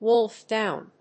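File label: "wolf down", English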